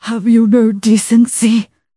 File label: upset; fertig classic games